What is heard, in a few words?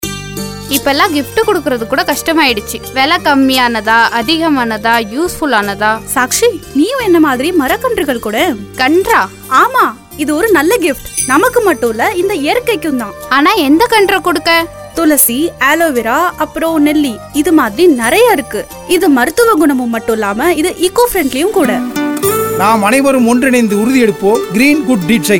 Gift sapling Tamil; greengood